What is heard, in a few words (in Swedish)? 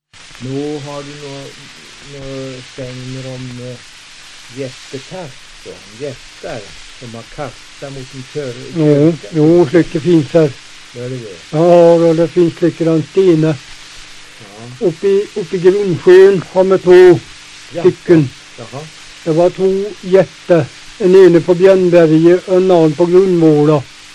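Hede Härjedalen, svenska sagor dialekter; Folkminnen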